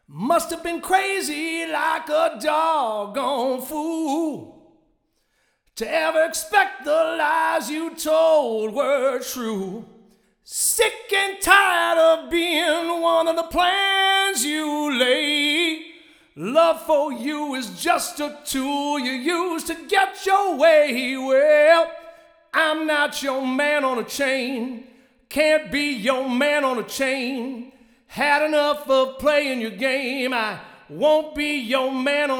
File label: male vox